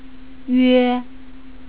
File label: yue, pronunciation